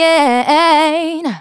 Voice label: Phrase Female; Vengeance Samples; Phrases

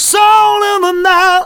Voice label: Phrase Male; Vengeance Samples

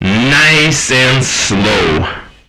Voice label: male fx vox, vocal house, d#; PS-28 Vocal House Samples

